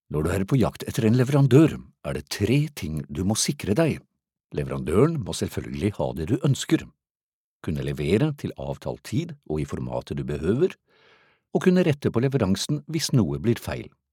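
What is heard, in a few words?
Norwegian, Narrative; GoLocalise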